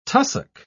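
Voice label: "tussock", English, British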